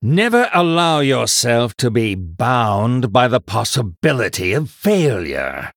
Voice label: Male Human Idle

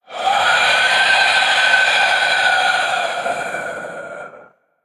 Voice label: Male Undead

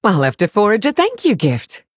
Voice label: Elf Emote Thanks

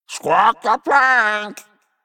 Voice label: Male Parrot

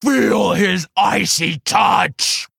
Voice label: Female Quilboar Attack

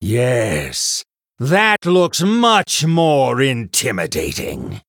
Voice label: Male Human After Triple

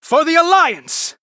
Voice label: Male Human Attack; Anduin

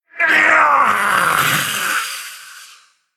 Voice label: Male Undead Death

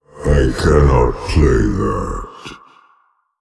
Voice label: Male Old God ERROR PLAY

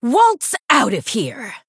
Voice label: Female Blood Elf Attack

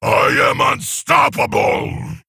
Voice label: Male Orc Attack; Kargath

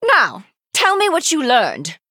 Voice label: Female High Elf Emote General

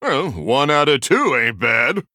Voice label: Male Human MIRROR START

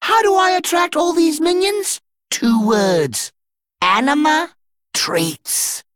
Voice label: Male Dredger Flavor

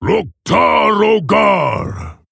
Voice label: Male Orc Hero Power; Minion; Varok; Uther

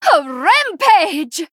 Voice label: Female Draenei TRIGGER HYSTERIA

